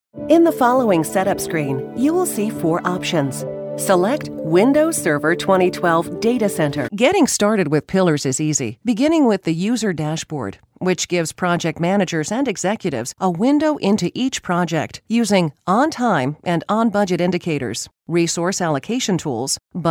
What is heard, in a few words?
eLearning